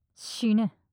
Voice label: 死ね・強く・近・左, ASMR

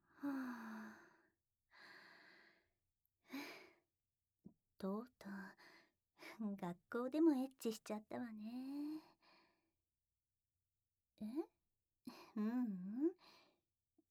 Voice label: ピロートーク, ASMR; SEあり; 生徒指導室エッチの後で……

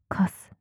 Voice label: カス・強く・極近・右, ASMR; マゾオスのあなたに敗北射精の快楽を叩き込む音声

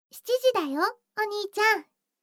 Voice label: 時報7時; 全肯定ロリママのあまあまでえっちな添い寝; いもおかASMR

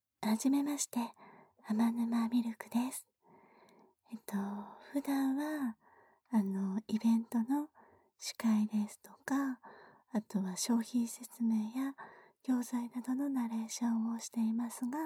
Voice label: 自己紹介, ASMR; 「初めておもちゃを使いました・・・」乳首を触るだけで潮吹き可能な敏感女子!クリ&中責めおもちゃでお潮ダダ漏れ!快楽堕ち!止まらない連続絶頂!

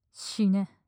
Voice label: "死ね" 強く 近 右, ASMR

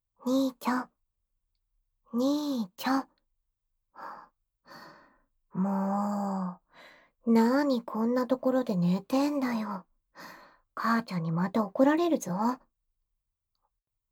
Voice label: やんちゃな妹がお兄ちゃんに耳かき; きっと気に入る女の子達

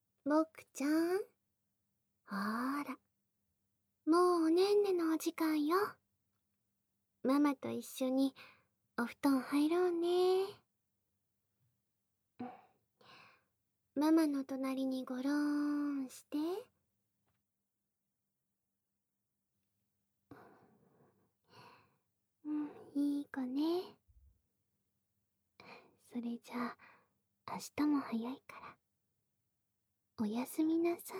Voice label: ママの安眠ささやき寝かしつけ子守歌

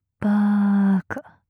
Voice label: "バーカ"・強く・極近・右; マゾオスのあなたに敗北射精の快楽を叩き込む音声

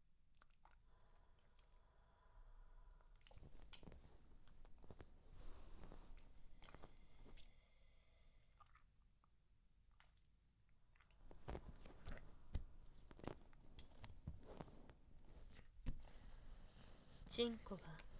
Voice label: ちんこマッサージ, ASMR; BGMなし; フタナリ!ちんこマッサージ店

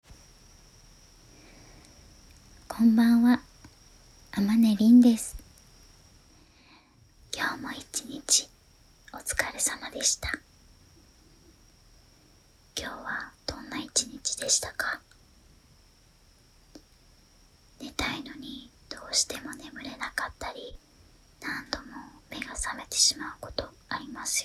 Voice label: BGMなし 催眠誘導; アリス式睡眠法

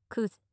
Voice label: "クズ" 近 右, ASMR

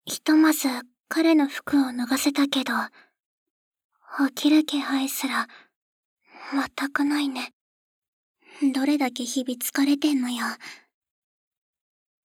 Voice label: これ、私がいつもしてもらってるマッサージ、どう…？, ASMR; 独占欲強めダウナーお嬢様の秘密の耳かき; バイノーラル

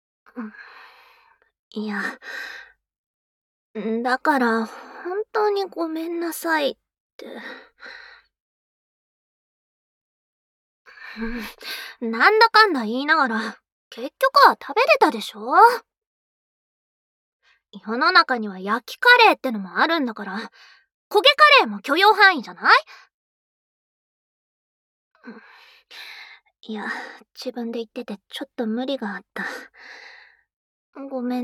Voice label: 食後は、妹の耳かきで SE無し, ASMR

